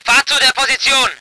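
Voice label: voice german vehicle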